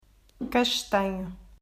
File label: "Castanho", Portuguese